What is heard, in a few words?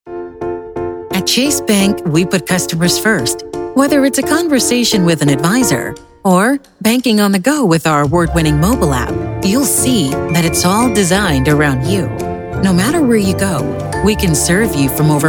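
Corporate Narration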